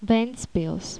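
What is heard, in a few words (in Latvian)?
"ventspils", Latvian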